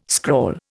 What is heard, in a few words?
"scrawl", Indian